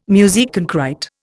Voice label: "musique concrÊte", Indian

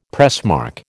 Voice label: "pressmark", American

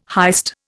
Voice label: "heist", Indian

